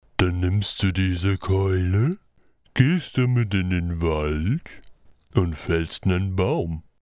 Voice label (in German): Hörprobe Videospiel Stumpfsinnig